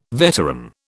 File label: "veteran", British